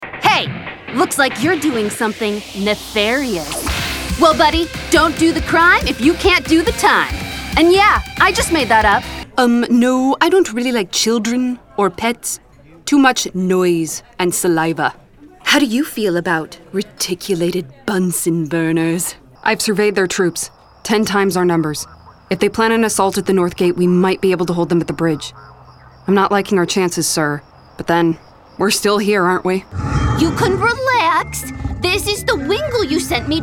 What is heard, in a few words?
animation